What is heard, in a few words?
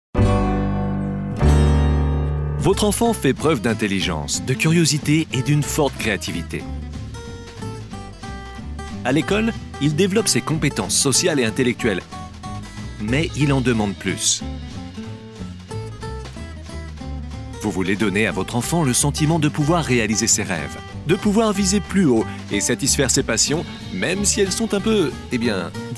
explainer; tekkieuni; French voice-over